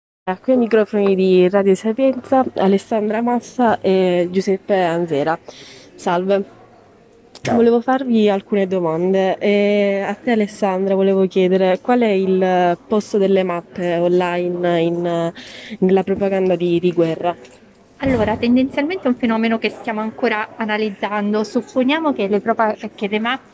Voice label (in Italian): Intervista